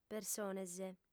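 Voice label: "persones", Sardinian, female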